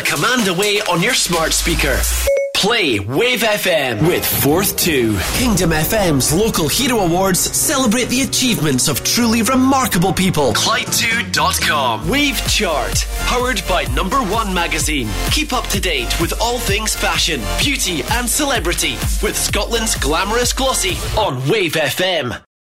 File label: scottish imaging promos